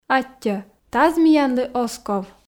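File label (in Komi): аттьӧ таз миянлы оз ков, Komi, female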